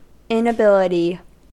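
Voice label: "inability", US English; pronunciations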